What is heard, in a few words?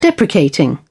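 "deprecating", English, British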